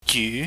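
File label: "jyu", Cantonese, mid rising tone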